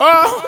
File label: "oh" pain